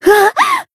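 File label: Voice damaged, Japanese; ashuthia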